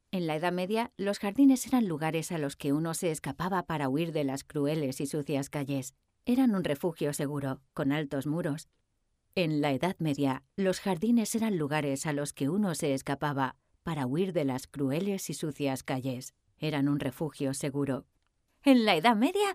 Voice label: home studio demo mix adult

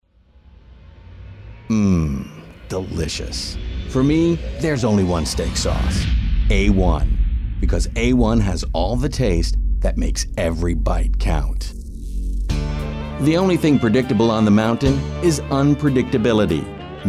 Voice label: Voiceover; MoVibe

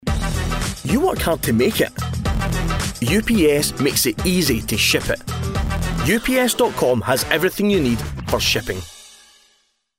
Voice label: Advert; UPS

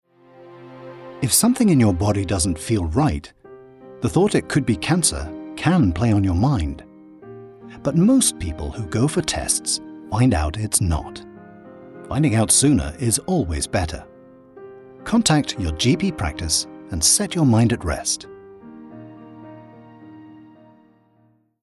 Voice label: Commercial RP; Cancer Awareness

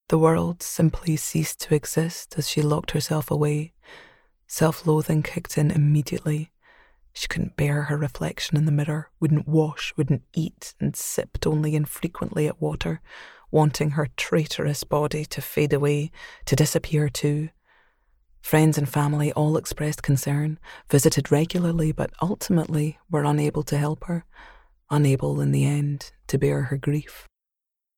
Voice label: Dramatic and emotional Audio book

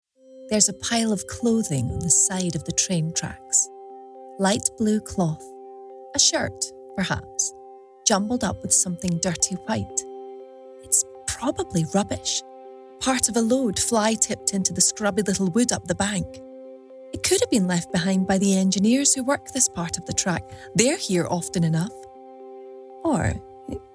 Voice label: AUDIOBOOK

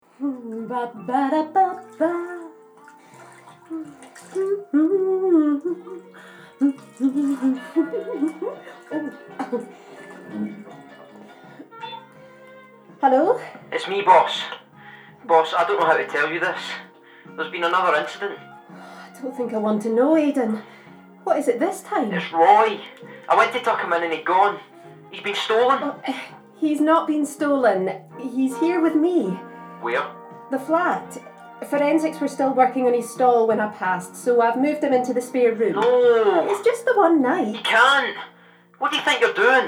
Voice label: Radio Play; Meryl; BBC

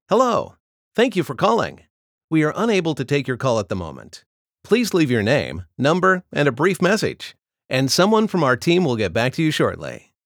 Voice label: voicemail

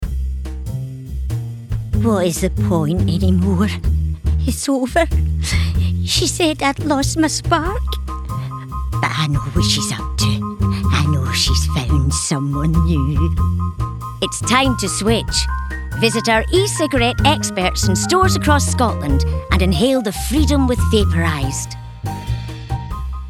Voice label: Ident; Vapourised STV

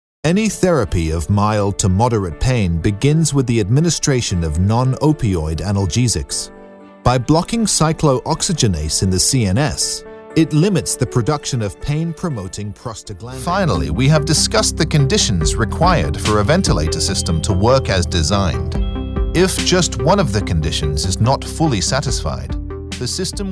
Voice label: British E Learning

